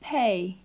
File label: "pay", English